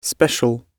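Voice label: "special" spoken, British English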